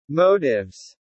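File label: "motives", British English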